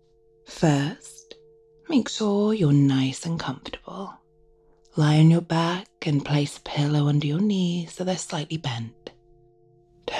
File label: tantric meditation guide pelvic floor training with binaural sound bath